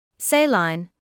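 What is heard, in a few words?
"saline", British English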